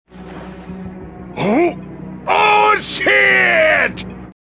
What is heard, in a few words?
yelling out shit